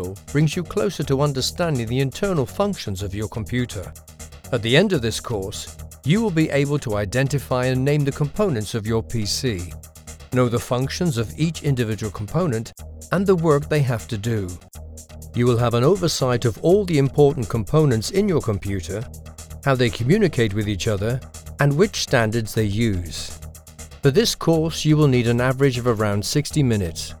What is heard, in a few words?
e-learning